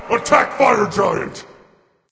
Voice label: vox attack f; smite; anubis